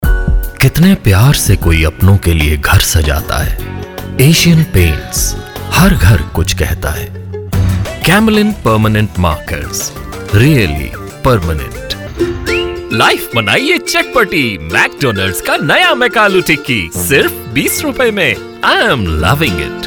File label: Hindi